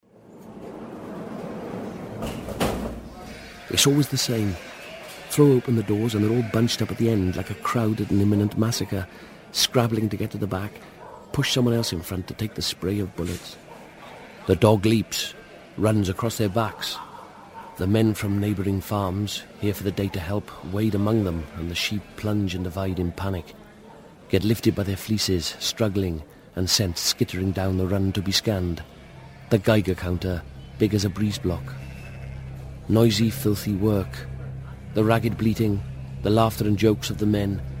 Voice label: Narration Reading Serious